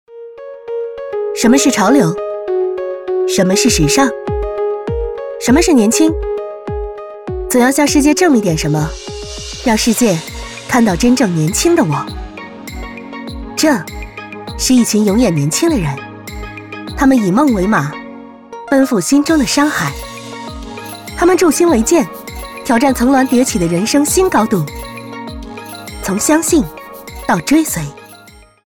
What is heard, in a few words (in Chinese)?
旁白时尚感